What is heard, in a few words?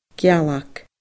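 "gealag", Scottish Gaelic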